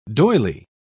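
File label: "doyley", British English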